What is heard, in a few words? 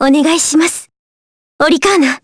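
Vox Skill Japanese; Maria L; kingsraid; jp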